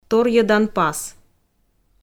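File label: "торйӧдан пас", Komi, female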